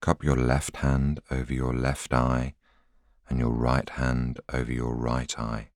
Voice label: voiceover